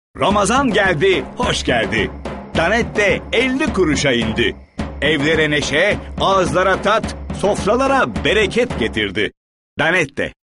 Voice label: guclu enerjik eprili; seslendirme

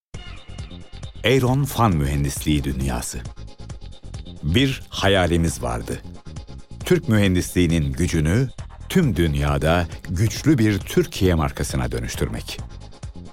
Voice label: guclu tanitim; seslendirme

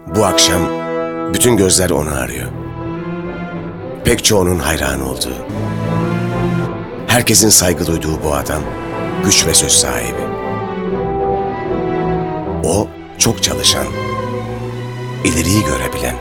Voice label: kurumsal imaj

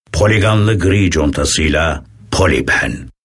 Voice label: Guclu Otoriter

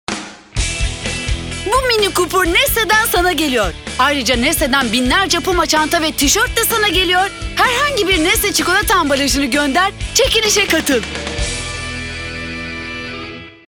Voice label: enerjik tempolu, seslendirme

